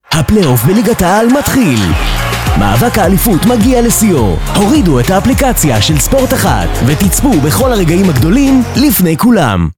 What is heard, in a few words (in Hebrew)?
פרסומת ספורט